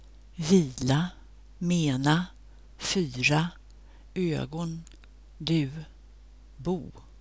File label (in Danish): svensk vila mena fyra; [ˈvi:la ˈme:na ˈfy:ra ˈø:gon dʉ̟β: buβ:]